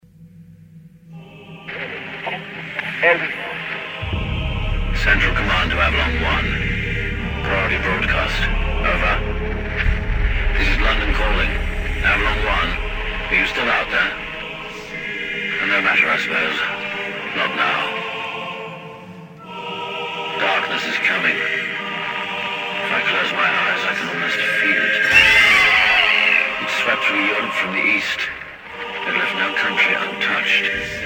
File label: Anuncio; Game